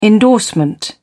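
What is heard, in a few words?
"endorsement", British English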